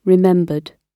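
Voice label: "remembered", British English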